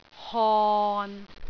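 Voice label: "hon6", Cantonese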